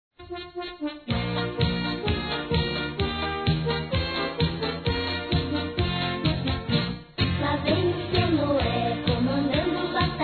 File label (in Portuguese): Aniv. Tia Feminino, Telemensagens; Aniversário Infantil